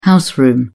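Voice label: "house room", British English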